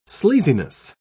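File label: "sleaziness", British English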